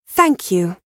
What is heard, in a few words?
Thank You Female